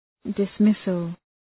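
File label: "dismissal", English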